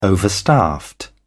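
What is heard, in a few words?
"overstaffed", English, British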